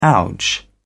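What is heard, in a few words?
"ouch", British English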